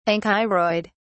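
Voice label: "ankyroid", British English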